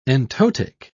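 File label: "entotic", English, British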